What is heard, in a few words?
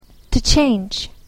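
"to change", English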